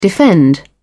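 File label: "defend", British English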